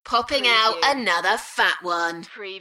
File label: UK female Poppin out another fat one; beanstalk audio